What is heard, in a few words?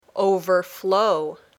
"overflow", English